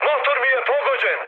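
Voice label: Serbian damaged engine mood high, speech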